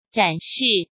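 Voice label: "展示", Mandarin Chinese; hsk; chinese-flashcards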